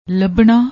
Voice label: "labna", Punjabi